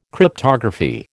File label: "Cryptography", American